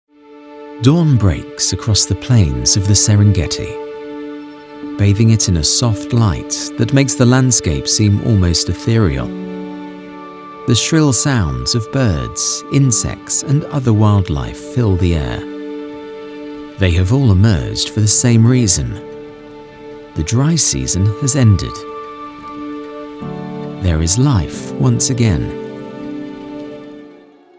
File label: Nature Doc